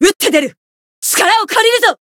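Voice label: limit break, Japanese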